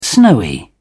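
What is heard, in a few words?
"snowy", British English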